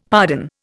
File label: "pardon", Indian; Dictionary